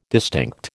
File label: "distinct", American